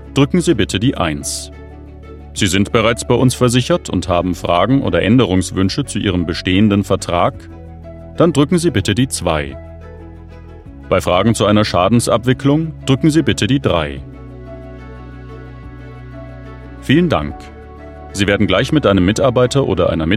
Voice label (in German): Telefonansage Versicherung